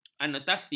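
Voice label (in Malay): "anotasi", Malay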